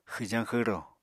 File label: "xwjañ xwro", Apatani